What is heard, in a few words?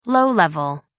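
"low-level", British English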